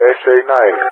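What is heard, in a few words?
"SA-9", English, GREECE; AirDefence; Allied Flight; DCS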